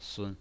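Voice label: "sun", Mandarin Chinese pinyin, neutral tone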